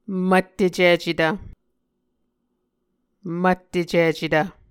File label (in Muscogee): "mvttecicetv", Muscogee